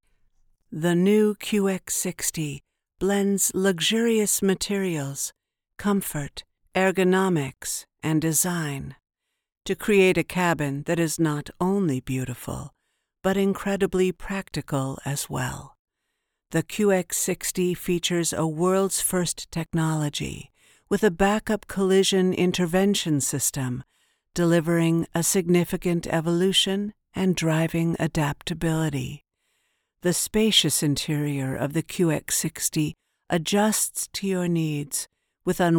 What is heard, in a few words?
Automotive Classy Refined Elegant Low tone, voiceover